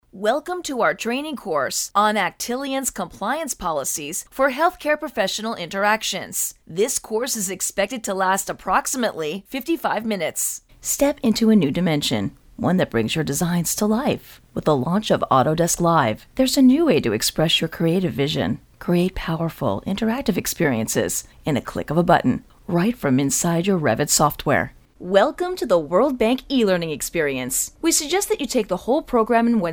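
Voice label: eLearning Female, conversational, friendly, upbeat; Narration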